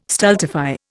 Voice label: "stultify", Indian

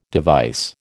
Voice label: "device", American

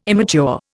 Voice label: "immature", Indian

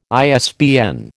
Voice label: "ISBN", American

